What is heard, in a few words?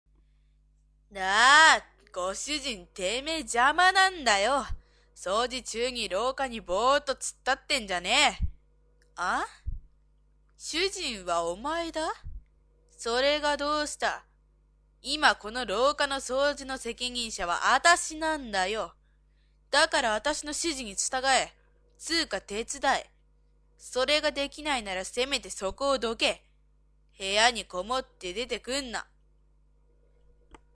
girl